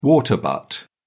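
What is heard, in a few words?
"water butt", British English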